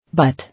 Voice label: "But", English

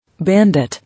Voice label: "Bandit", English

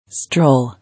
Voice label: "Stroll", English

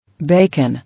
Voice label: "Bacon", English

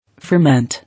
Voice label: "Ferment", English

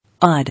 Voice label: "Odd", English

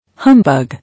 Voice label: "Humbug", English

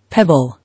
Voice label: "Pebble", English

